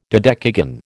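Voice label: "dodecagon", American English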